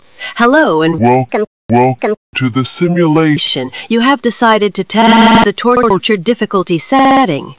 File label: tortured; sven; holo